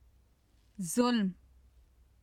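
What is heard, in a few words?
"zulm", Urdu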